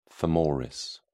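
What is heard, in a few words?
"femoris", British English, dictionary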